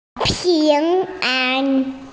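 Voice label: "safe", Mandarin Chinese, female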